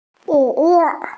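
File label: "zhi4 yu4", Mandarin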